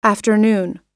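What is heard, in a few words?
"afternoon", English; oxford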